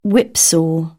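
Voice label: "whipsaw", British English; AmericanBritish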